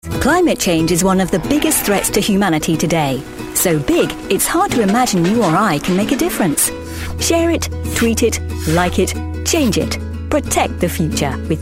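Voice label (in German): English UK Freundlich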